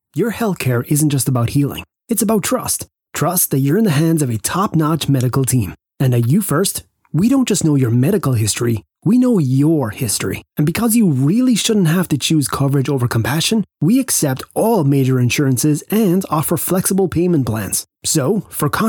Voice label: Professional